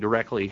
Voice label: directly, AE; də'ɹɛkli

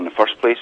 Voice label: "in the firsT place", Scots

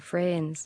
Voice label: "friends", Scots

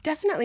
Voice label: definitely, AE; dɛfnəʔli; Nasals

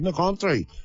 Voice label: "iN THe country", Irish

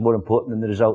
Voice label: "more important THan THe rsult", Scots

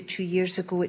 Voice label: "two years ago", Scots; tʉ'jɪɹsgo

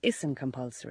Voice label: "isnT compulsory", Irish

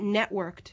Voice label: networked, AE; 'nɛʔwɚkt

